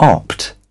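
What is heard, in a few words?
"Opt", British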